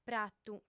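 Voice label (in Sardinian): "pratu", female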